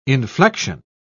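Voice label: "inflexion", British English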